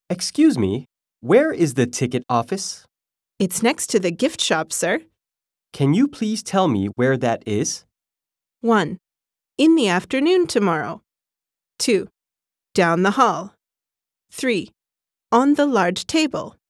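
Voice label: narration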